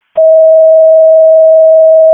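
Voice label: Raleigh Fire Department ALERT, p25; wake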